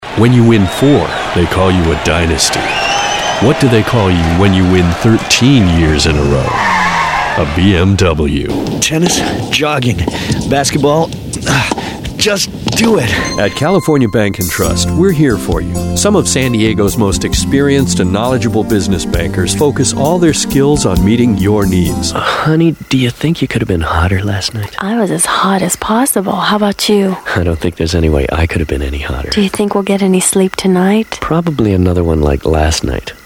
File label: men commercial; Characters, nice-guy.